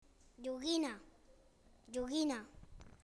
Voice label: "toy", SPANISH (Castellano); joguina

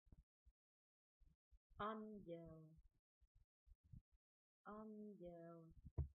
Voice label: angel, CZECH

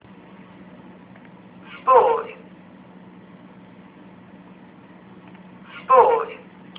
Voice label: "sport", En; peace

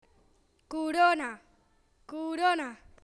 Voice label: wreath, SPANISH (Castellano); corona

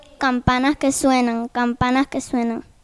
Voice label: jingle bells spanish; Campanas que suenan

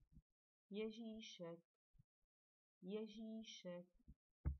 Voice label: father christmas, CZECH; Ježíšek; Santa Claus,we have Ježíšek

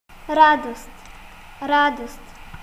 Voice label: "joy", bg; радост

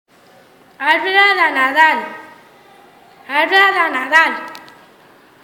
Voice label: "Christmas tree", SPANISH (Castellano); arbre de Nadal

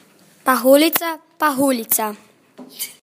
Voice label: "Snowflake", cro; Pahulja

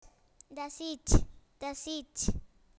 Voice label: "wish", SPANISH (Castellano); desitj

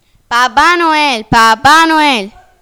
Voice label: Father Christmas spanish; Papá Noel

